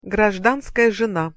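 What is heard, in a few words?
"grazdanskaja zena", Russian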